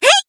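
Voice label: Voice attack, Japanese; sincere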